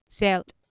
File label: "sealt", Anglo Saxon